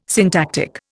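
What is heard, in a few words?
"syntactic", Indian English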